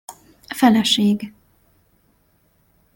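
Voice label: "feleseg", Hungarian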